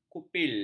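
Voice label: "kupil", Malay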